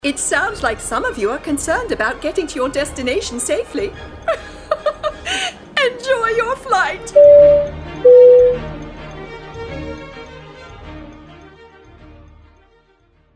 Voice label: Flight attendant